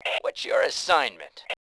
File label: bot, male; voice